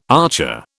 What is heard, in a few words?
"archer", British